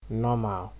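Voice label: "normal", Nigerian Pidgin, male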